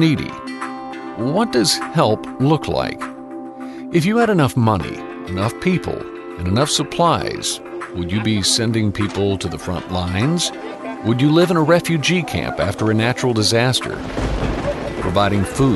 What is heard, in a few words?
narration